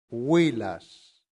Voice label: "villas", latin; santillana

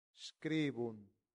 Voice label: "scribunt", latin; santillana

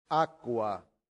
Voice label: "aqua", latin; santillana

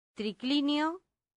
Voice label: "triclinio", latin; santillana

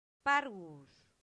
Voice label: "parvus", Latin; santillana

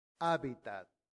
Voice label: "habitat", latin; santillana

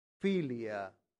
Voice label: "filia", latin; santillana

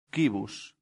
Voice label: "cibus", latin; santillana

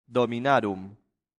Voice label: "dominarum", latin; santillana

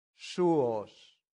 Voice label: "suos", Latin; santillana

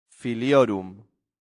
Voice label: "filiorum", latin; santillana

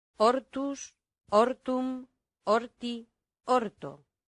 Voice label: "hortus", latin; santillana

